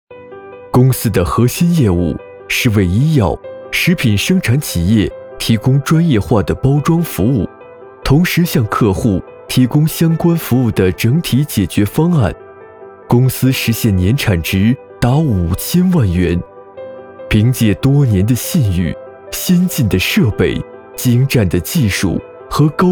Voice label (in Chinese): 企业宣传; 颖河